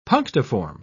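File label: "punctiform", British English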